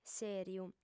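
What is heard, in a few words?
"sèriu", Sardinian, female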